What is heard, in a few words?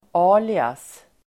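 "alias", Swedish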